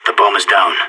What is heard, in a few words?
bomb down, radio; SAS